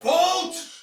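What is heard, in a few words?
See Player Soldier Male; Dialog; Barks; Battle; en; Sinecure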